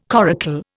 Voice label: "coracle", Indian